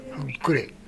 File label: "fuggure", minamiyamagata